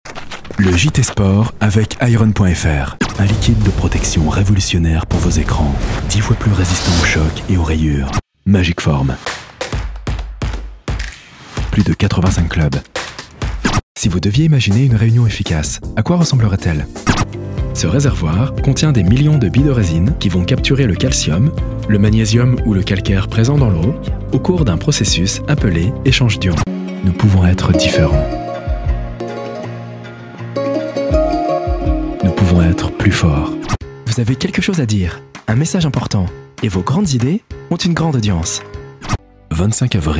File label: french